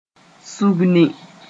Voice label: "sũgni", Moore; coordinate

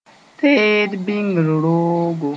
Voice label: "teed bĩngr roogo", moore

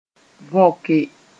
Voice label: "võke", moore

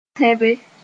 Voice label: "tẽbe", Moore

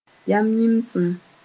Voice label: "yam-yĩmsim", moore

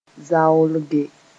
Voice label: "zaoolge", moore

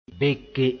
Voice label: "beke", moore

